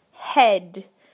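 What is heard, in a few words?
"head", British English; PictureDictionary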